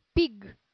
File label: "pig", English